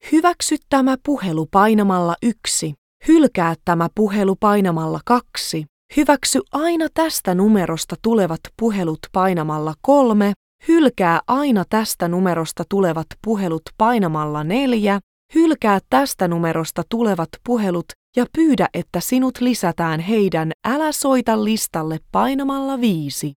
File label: Accept Call Navigation, finnish